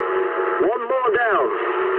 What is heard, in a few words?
eng player killed enemy mood med, speech eng